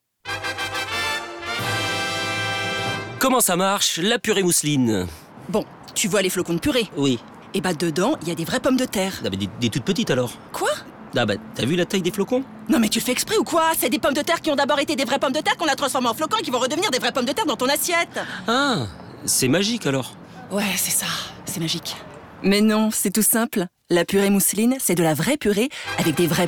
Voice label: pub comedie; Com et Off

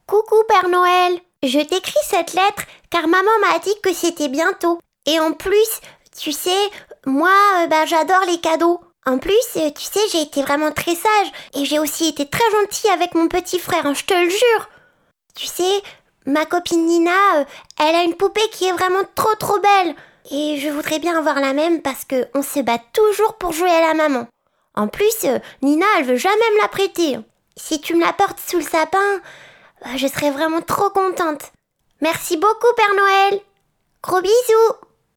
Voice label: Voix enfant

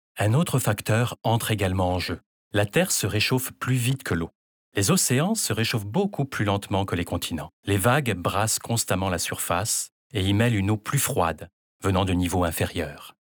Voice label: docu

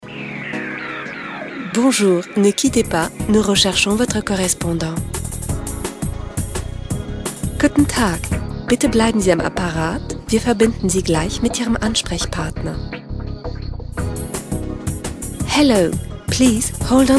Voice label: ATTENTE TELEPHONIQUE

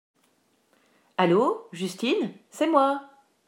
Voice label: Message vocal

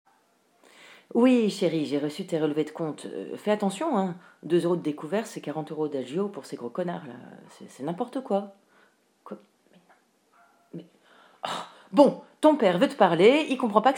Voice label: Message vocal

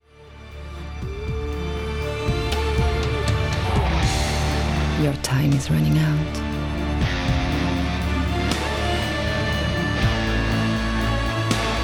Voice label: Voix Musique; Dior

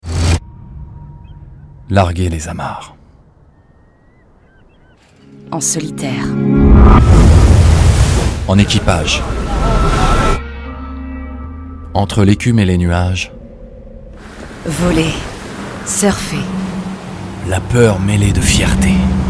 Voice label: documentaire duo court; La route du Rhum

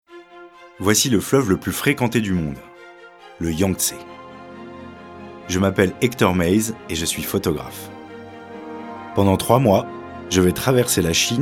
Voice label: Docu; La Chine

